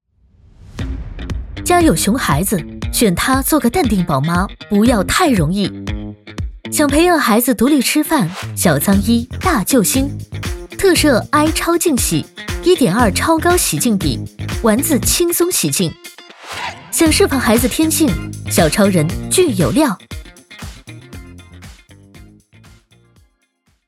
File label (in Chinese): 广告欢快; 海尔